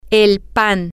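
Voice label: Spanish, the bread, female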